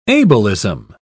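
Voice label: "ableism", British English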